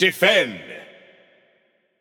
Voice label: Announcer Match Role Defend; BombKing

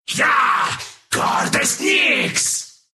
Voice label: levelup ru; Dota 2

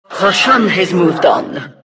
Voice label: announcer; Diretide 2012; rosh

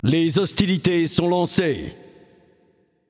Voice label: Announcer French Match Start; Paladins

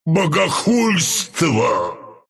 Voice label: death, ru; Zuus arc

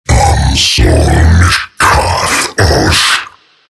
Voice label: morph demon; Terrorblade

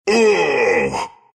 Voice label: pain, ru; Treant; Dota 2

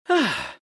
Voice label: happy; Tinker

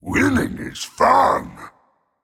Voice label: VOX Emote; Smite; Splyce Jormungandr; VGS

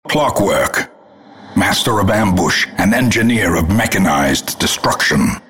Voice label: announcer hero announced

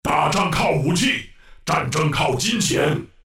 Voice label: last hit zh; Dota 2

